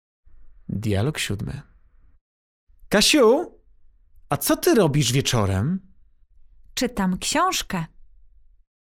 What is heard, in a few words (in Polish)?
dialog